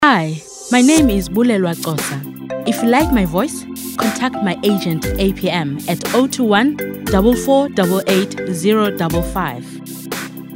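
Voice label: english South African female commercial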